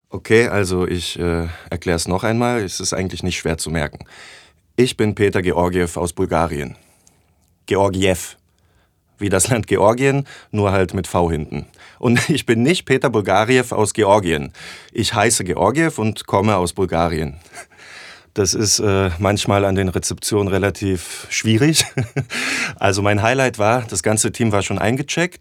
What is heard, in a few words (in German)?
Natuerliche Stimme